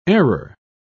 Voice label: "error", pronounce

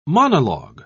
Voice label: "monologue", pronounce

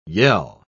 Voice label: "yell", pronounce

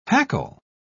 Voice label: "hackle", pronounce

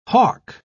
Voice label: "hawk", pronounce

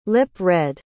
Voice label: "lip-read", pronounce

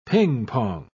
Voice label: "ping-pong", pronounce

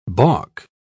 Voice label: "balk", pronounce

